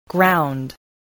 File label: "ground", spelling